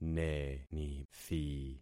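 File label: "nenithi", concatenated syllables; Affixing experiment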